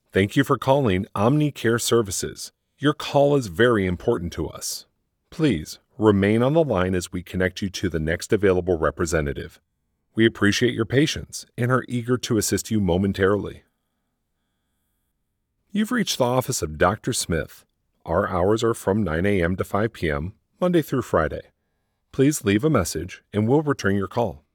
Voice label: telephony